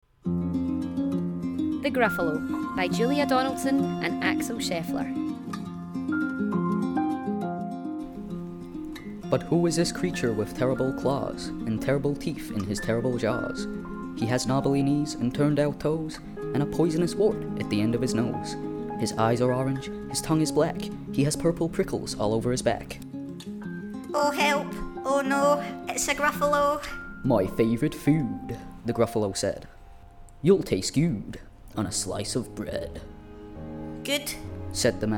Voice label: audiobook